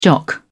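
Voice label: "jock", British English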